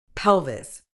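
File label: "pelvis", medical English, British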